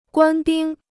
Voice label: "官兵", Chinese

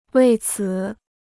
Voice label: "为此", Chinese

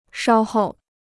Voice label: "稍候", Chinese

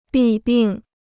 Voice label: "弊病", Chinese

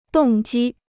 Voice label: "动机", Chinese

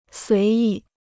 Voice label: "随意", Chinese

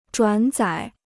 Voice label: "转载", Chinese

